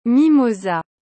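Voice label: "mimosa", French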